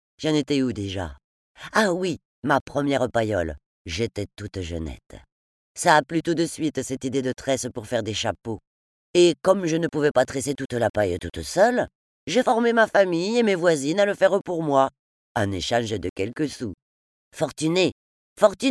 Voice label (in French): Personnage musée accent SO; Petronille; Caussade